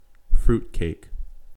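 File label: "fruitcake", American English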